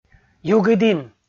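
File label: "югыдін", Komi, male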